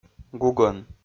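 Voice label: "гугӧн", Komi-Zyrian, male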